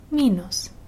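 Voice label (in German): "minus", German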